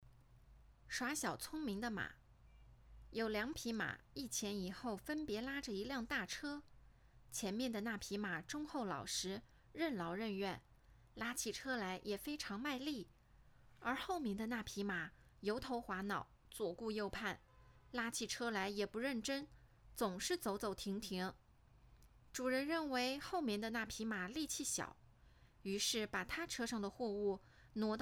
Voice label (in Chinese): 网课配音